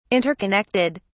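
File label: "interconnected", English, British English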